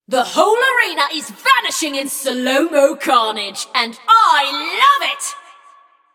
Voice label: Scrap Run Entire Arena Nearly Gone, Announcer

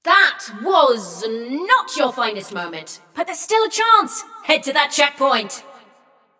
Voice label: Scrap Run Go To Checkpoint, Announcer; Flux

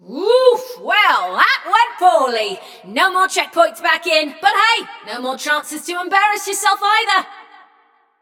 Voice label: Scrap Run Inform Runner Eliminated, Announcer

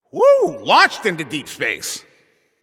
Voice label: Announcer Long Hit To Other Side

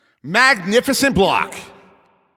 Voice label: Announcer Goalie Save

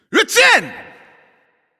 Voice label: Goal Scored, Announcer

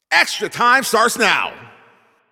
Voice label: Announcer Overtime Starts

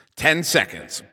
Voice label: 10 Seconds To Game Start, Announcer

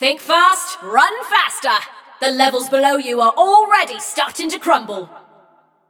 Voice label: Scrap Run General Reminder, Announcer; Flux

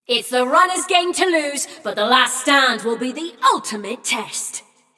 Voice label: Runners Lead, Announcer; Flux; Scraprun

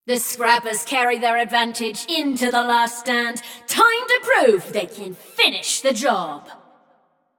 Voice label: Scrappers Lead, Announcer; Flux; Scraprun

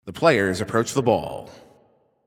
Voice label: Ball Placed in Start Ring, Announcer

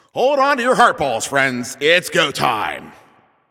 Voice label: Game Start, Announcer

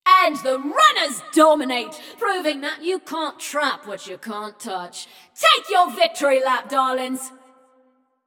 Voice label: Runners Win, Announcer; Flux; Scraprun

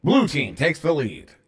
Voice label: Team Takes Lead Blue 2 Flags, CTF Announcer

